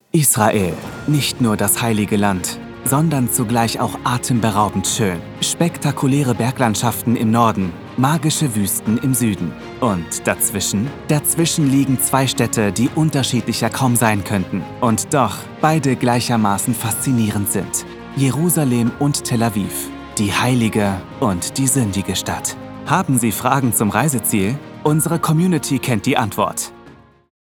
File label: epic; Israel